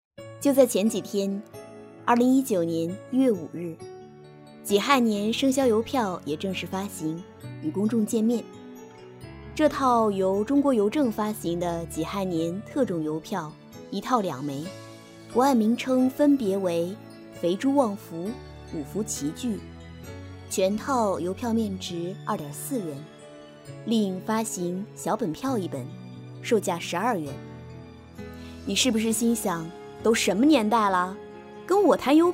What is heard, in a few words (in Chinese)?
女 讲述 日常讲述音